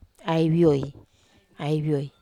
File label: "ai vioi", wamesa